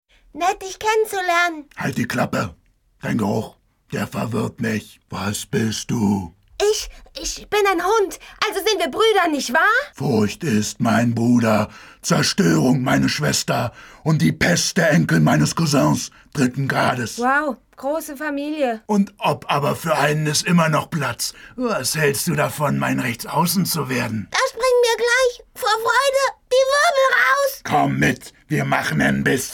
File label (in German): Zeichentrick